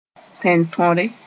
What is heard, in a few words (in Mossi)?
"tẽn-tõre", Moore; territory